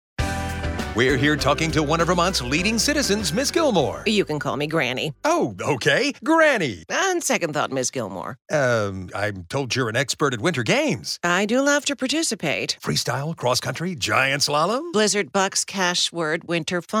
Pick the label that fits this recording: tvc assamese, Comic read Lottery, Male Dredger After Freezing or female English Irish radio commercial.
Comic read Lottery